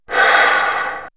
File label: Men Women Animal Magic, vox; X-Static Goldmine